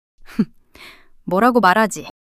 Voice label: fluster, monologue